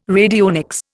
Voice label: "radionics", Indian

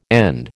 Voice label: "end", American

